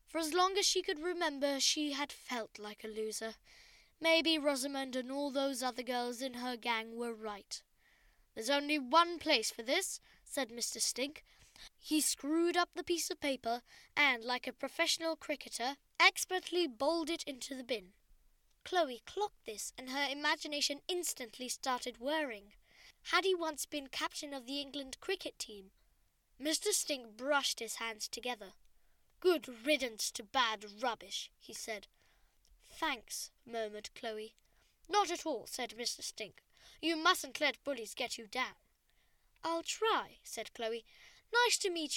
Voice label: Comic scene with posh man's voice